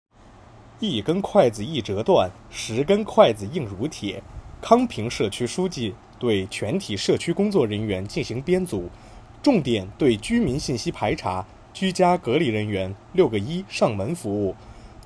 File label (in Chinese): 政府工作宣传通告